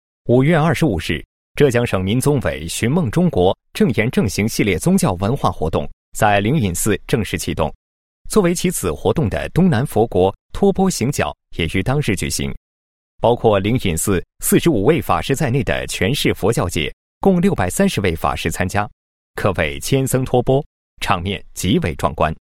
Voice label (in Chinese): 男 新闻, 国语男声配音 磁性; 男98磁性专题